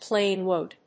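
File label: "plain wood", English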